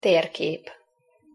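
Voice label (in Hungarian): "terkep" Hungarian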